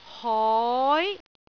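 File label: "hoi2", Cantonese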